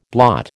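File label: "blot", American English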